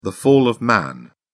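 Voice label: "fall of man", British English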